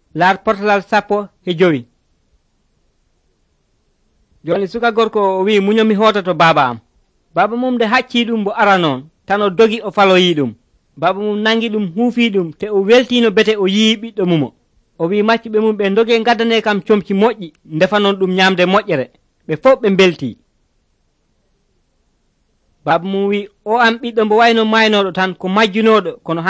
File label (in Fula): pulaar fulacunda, drama